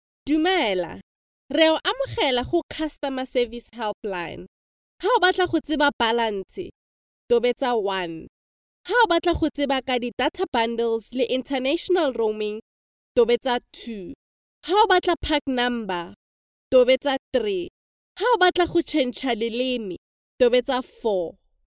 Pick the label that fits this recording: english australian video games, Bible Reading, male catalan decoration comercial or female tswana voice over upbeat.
female tswana voice over upbeat